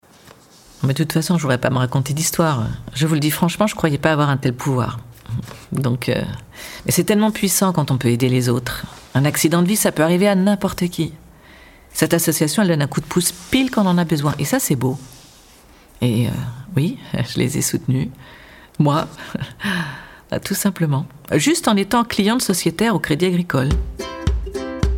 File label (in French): Femme mure; TOOKETS